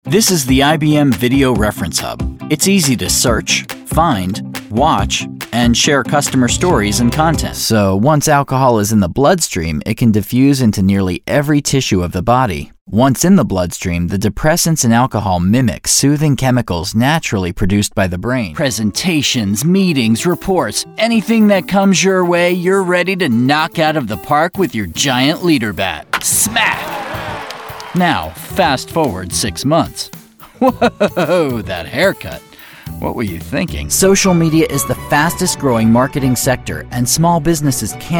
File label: Corp Narration